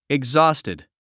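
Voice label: "exhausted", English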